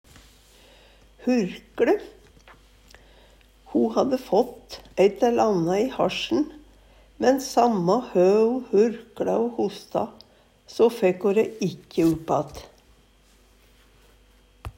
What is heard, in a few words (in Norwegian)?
"hurkLe", Numedalsmål; Ho hadde fått eit æll ana i hasjen, men samme hø ho hurkLa o hosta, so fækk ho ræ ikkje